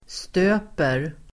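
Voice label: "stöper", Swedish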